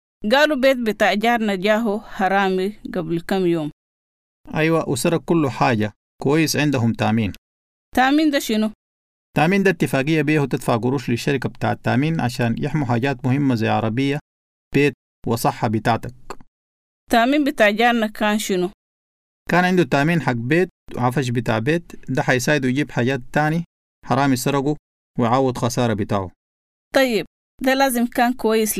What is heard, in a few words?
insurance sudanese